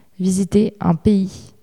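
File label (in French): "visiter un pays" French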